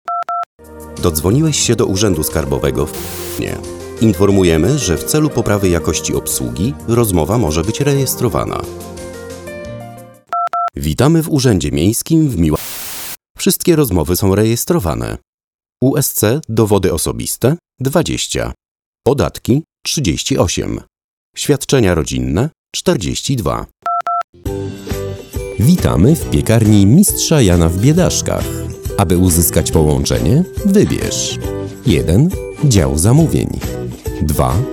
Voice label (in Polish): telefon; komunikaty głosowe